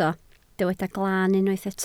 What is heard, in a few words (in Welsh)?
"glan", Welsh